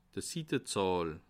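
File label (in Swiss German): "sittezahl", Bas Rhin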